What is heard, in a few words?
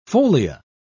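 "folia", British English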